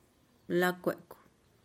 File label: "lakuekuh", blue flower, tlacochahuaya